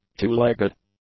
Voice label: "two-legged", English; adjectives

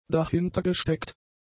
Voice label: "dahintergesteckt", German; adjectives

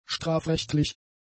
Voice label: "strafrechtlich", German; adjectives

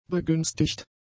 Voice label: "begünstigt", German; adjectives

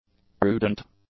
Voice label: "prudent", english; adjectives

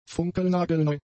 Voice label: "funkelnagelneu", German; adjectives

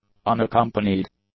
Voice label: "unaccompanied", English; adjectives

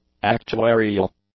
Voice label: "actuarial", English; adjectives

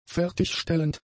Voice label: "fertigstellend", german; adjectives

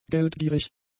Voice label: "geldgierig", German; adjectives

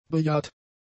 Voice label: "bejaht", German; adjectives

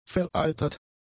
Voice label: "vereitert", German; adjectives

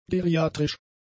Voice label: "geriatrisch", German; adjectives

